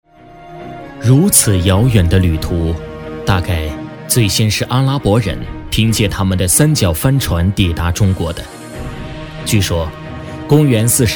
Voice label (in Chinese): 男 专题 探险悬疑, 国语男声配音, 浑厚; 海上丝绸之路